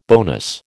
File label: "bonus", American